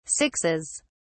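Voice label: "sixes", British English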